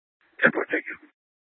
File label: Dispatch; Scottsdale PD; Nth Dispatch